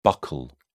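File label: "buccal", English, British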